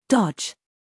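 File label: "scandal", American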